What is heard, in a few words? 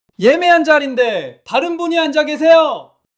anger